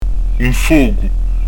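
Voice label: "un focu", Corsican; un f'ogu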